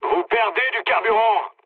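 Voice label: French tank damaged mood low; War Thunder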